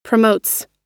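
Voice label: "promotes", US English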